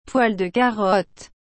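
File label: "poil de carotte", French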